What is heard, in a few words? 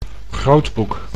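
"grootboek", Dutch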